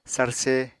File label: "sarse", Apatani